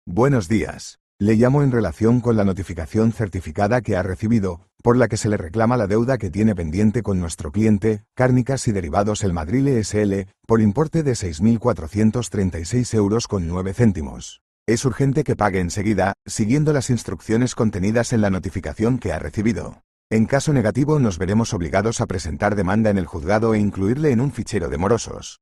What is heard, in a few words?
audio call